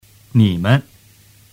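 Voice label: "nimen", Mandarin Chinese; tu moi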